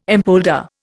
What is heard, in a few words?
"empolder", Indian